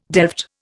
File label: "delft", Indian